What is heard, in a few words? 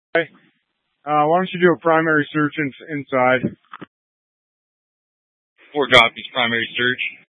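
Incident Tactical 1, Scanner audio recording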